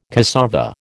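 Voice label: "cassava", American English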